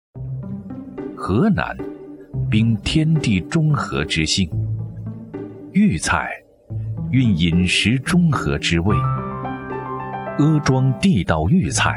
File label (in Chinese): 男 纪录片舌尖风格; 河南味道